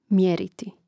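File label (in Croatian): "mjeriti", Croatian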